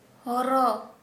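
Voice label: Santali female turtle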